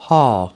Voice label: "hall", us